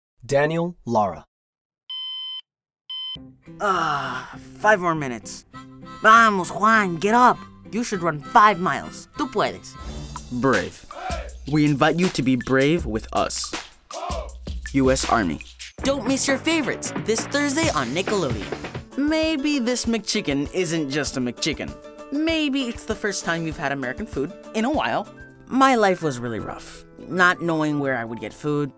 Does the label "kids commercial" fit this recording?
Yes